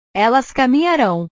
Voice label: "elas caminharao", portugues

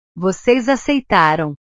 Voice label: "vocês aceitaram", portugues; Ustedes aceptaron; verbos

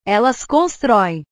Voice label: "elas constroem", portugues; portugues/verbos

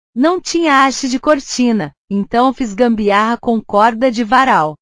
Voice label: "gambiarra", portugues

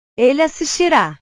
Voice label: "ele assistira", portugues

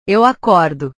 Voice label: "eu acordo", portugues

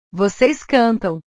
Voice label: "voces cantam", portugues; cantar; portugues/verbos

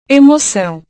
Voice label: "emocao", portugues

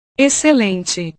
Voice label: "excelente", portugues

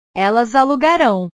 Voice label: "elas alugarao", portugues

